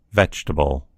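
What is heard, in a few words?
"vegetable", American English; gcompris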